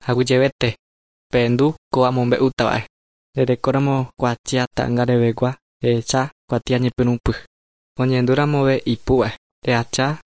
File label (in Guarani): guarani mbya; good news and words of life; bible